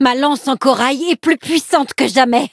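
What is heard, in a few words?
upgrade, French; Cookie Run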